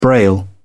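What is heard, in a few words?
"braille", British English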